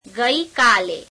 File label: gujarati yesterday; Adverbs; ગઈ કાલે; [gueī keāle]